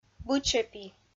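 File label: "бычӧпи", Komi-Zyrian, female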